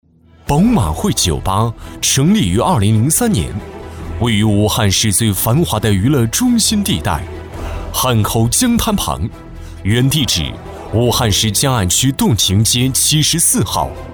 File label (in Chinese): 男 宣传 震撼商务